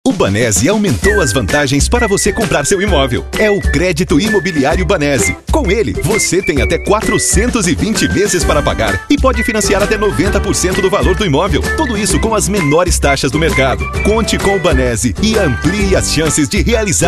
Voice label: Spot; BANESE